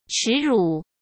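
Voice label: "耻辱", Mandarin Chinese; chinese flashcards; hsk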